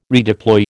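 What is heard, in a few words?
"redeploy", American English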